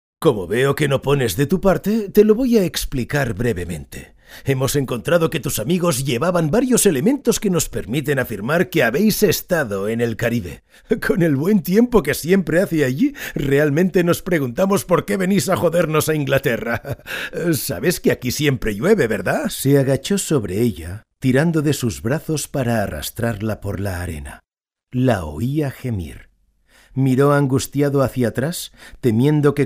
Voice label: CHARACTERISATION, Spanish, male

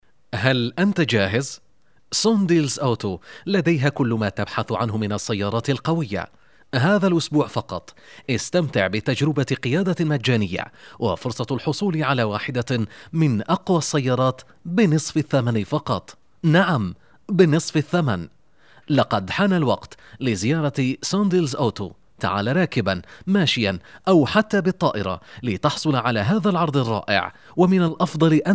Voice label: tutorial, ARABIC, MALE

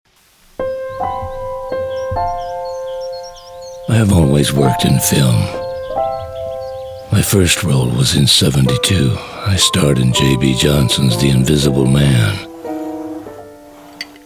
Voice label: COMMERCIAL, ENGLISH UK MALE; AUDI

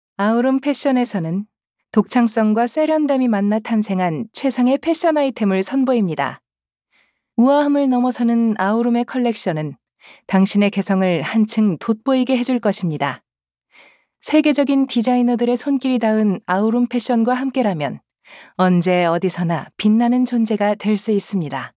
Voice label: Korean, female

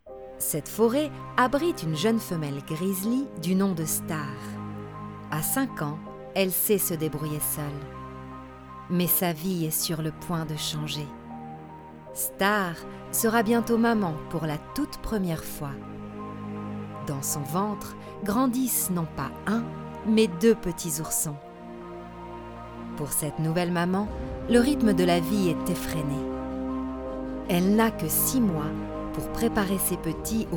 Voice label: documentary, French, female

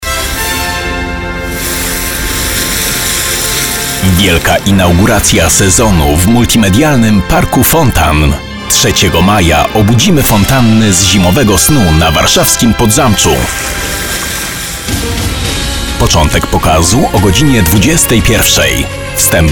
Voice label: POLISH, MALE

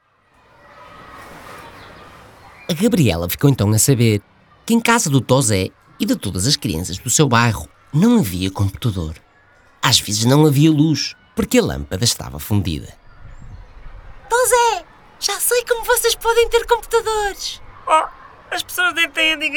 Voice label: character, portuguese, male; commercial

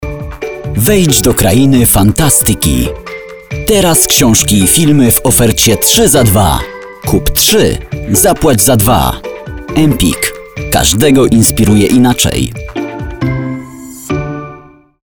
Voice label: POLISH, MALE

